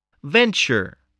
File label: "venture", English